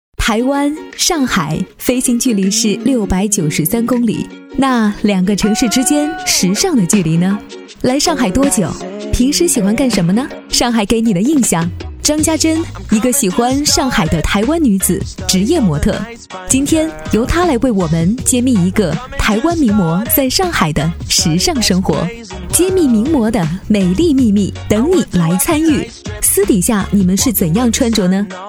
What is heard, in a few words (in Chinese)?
专题, 国语女声配音; 时尚人物